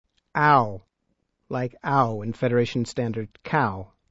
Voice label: Sound aw, as in cow, Klingon

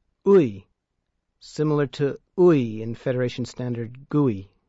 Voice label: Klingon sounds uy

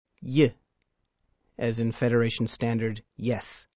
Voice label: Klingon sounds y, Letter y, as in yes; Note:This is not filed as vocal as it´s never pronounced as such

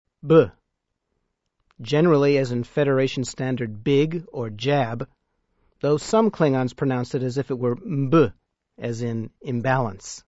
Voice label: Letter b, as in big, Klingon

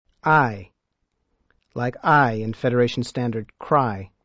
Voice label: Klingon sounds ay

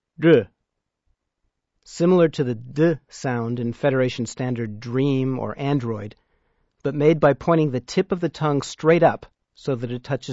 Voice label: Klingon sounds D, Letter D, as in dream; Note:it´s always upper case!

